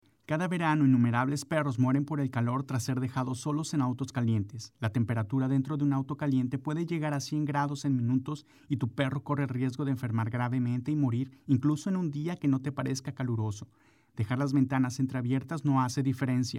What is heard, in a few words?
radio PSAs spanish; perros calientes; hot car